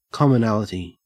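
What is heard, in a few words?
"commonality", Australian English; pronunciations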